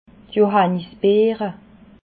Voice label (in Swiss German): "johannisbeere", alsace